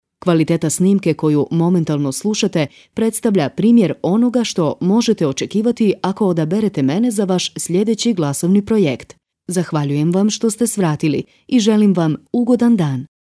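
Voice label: croatian female narration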